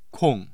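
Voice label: "kong", Mandarin Chinese, tone 4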